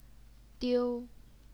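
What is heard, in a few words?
"diu", first tone, Chinese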